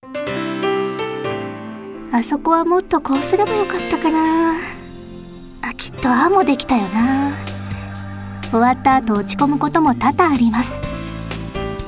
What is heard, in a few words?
monologue